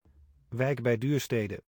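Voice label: "Wijk bij Duurstede", Dutch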